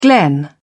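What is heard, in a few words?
"glen", British English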